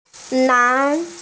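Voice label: "nan2", Mandarin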